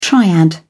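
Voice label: "triad", British English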